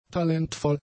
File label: "talentvoll", German; adjectives